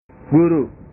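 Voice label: "guru", Indonesian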